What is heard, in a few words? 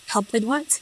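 voice acting, es, female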